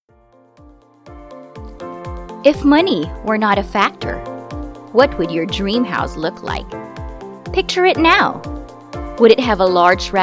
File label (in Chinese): 外籍英女 商业广告